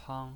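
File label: "pang" first tone, pinyin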